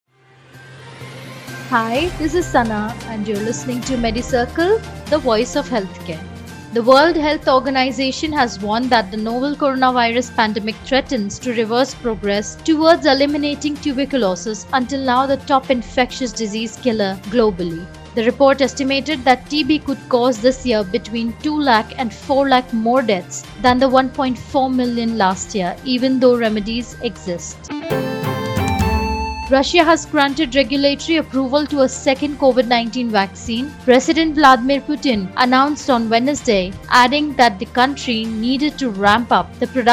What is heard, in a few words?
english news